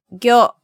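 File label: "gyo", Japanese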